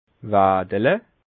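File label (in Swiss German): "waedele", Bas Rhin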